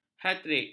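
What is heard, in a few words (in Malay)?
"hatrik", Malay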